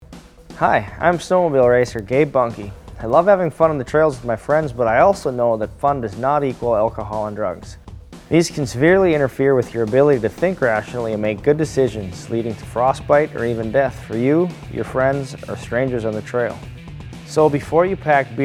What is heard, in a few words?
Radio